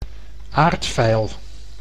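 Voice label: "aardveil", Dutch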